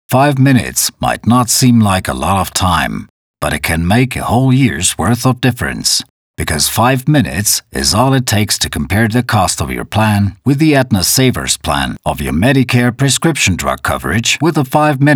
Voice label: German English

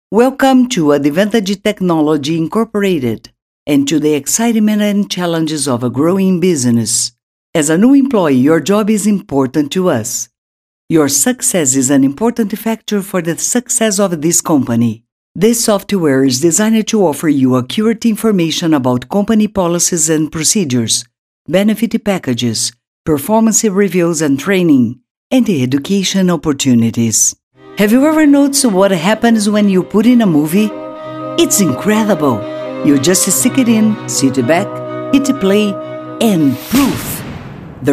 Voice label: Brazil Portuguese English

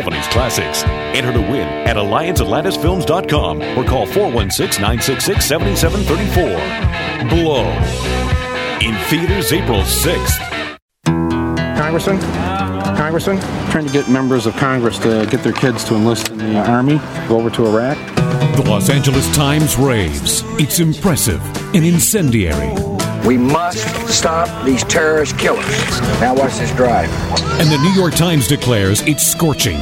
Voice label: Movie Trailers; Professional Male Voice Over Talent

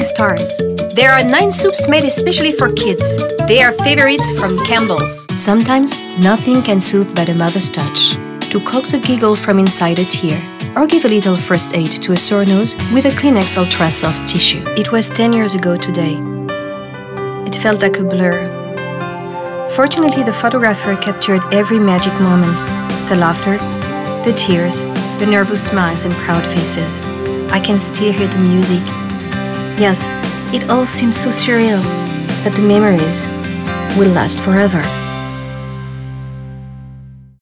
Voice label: Eng French Accent

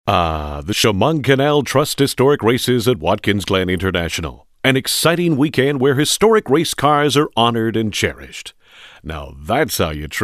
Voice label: Funny Commercial; Professional Male Voice Over Talent

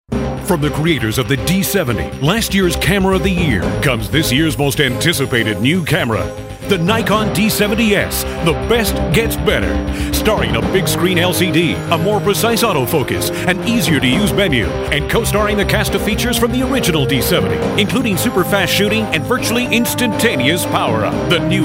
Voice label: Radio; Nikon Radio D70; Professional Male Voice Over Talent

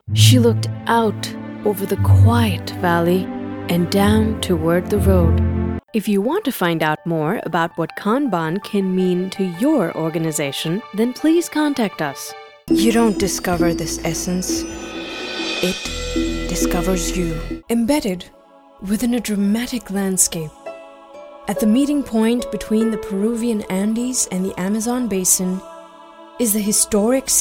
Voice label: US English Master VO

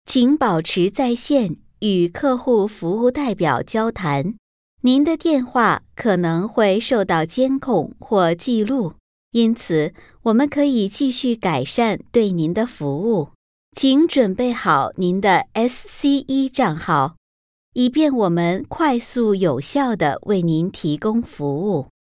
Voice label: Female Mandarin

